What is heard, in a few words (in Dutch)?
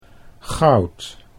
"goud", Dutch; sieraden